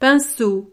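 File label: "pinceau", French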